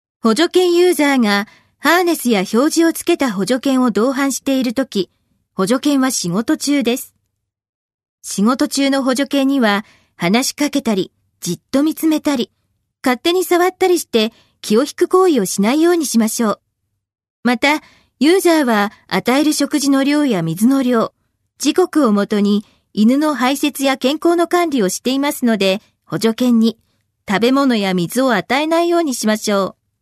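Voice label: narration female power; otituita funiki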